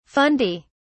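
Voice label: "fundi", British English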